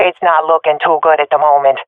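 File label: round losing, swat female; vo; Counter Strike Model Pack; CS:GO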